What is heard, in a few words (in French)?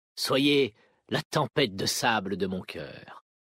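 French Homme Flirt; Vulperin; Tempete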